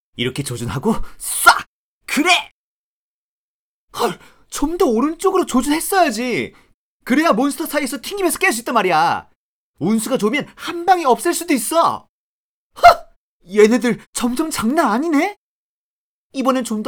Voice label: 韩籍韩语男游戏信息流激情

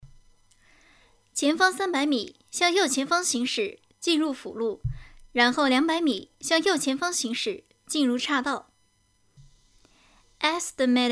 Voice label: 导航试音

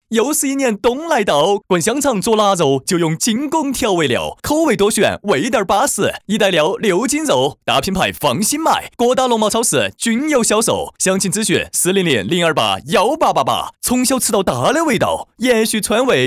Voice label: 四川话 调味料; 金宫调味料

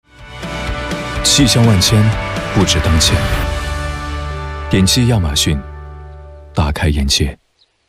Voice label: 高端男洋气时尚; 亚马逊

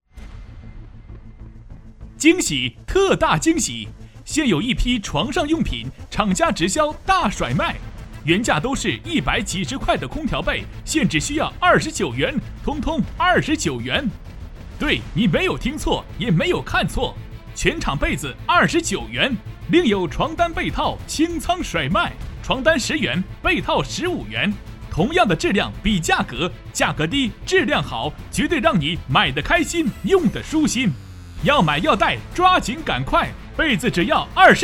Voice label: 促销广告

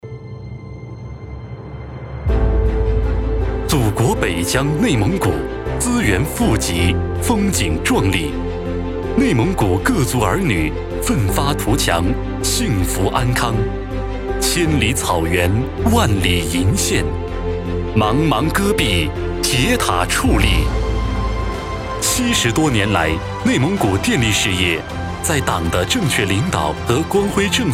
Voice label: 专题企业电力厚重坚定

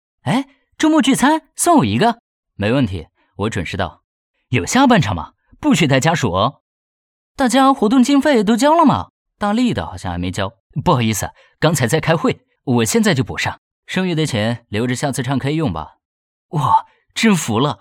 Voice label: 人物对话 青年音 课件; 小经费软件

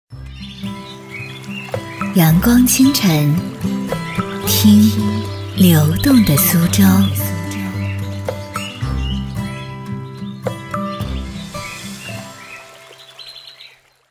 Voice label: 台宣呼号; 流动的苏州

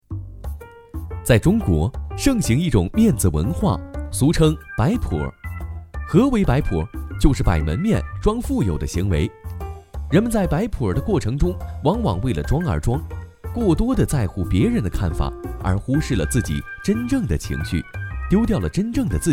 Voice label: 创意讲述; 飞碟说感觉

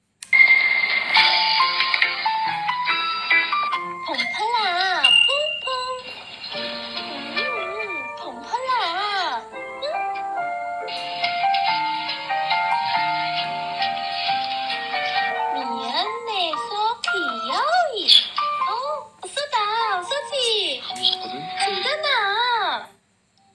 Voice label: 韩语配音

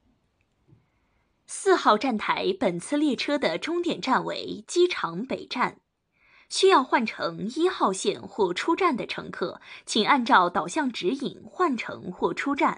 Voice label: 地铁 配音

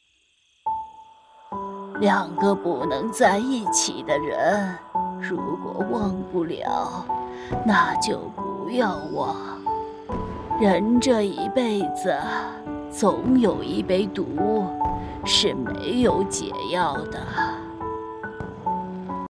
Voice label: 奶奶音

